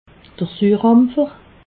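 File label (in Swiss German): "surampfer", Alsatian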